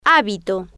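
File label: "habito" Spanish, female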